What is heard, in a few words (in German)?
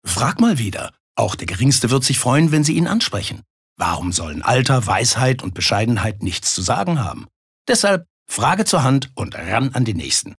Werbung Freundlich